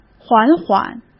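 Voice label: "缓缓", Mandarin Chinese; chinese-flashcards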